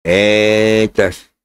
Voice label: eeeeeita lento